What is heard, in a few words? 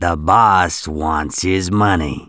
Here for the Money, Male; gangsters